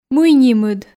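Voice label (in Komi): "мый нимыд", Komi, female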